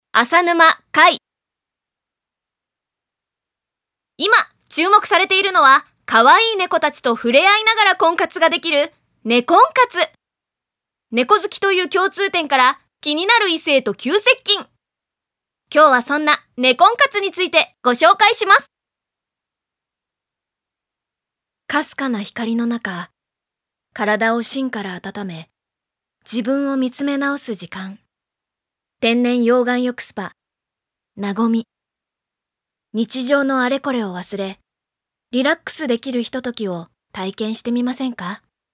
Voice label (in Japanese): ナレーションサンプル